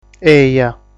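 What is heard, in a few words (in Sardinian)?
"Eja", Sardinian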